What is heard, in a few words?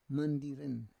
"mandiren", Roon